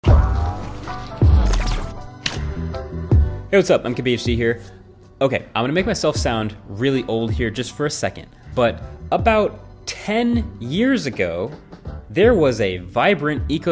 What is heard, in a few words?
english